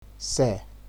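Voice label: "sell", Nigerian Pidgin, male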